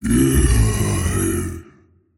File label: anger; tiny